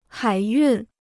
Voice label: "海运", Chinese

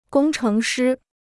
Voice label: "工程师", Chinese

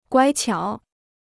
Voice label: "乖巧", Chinese

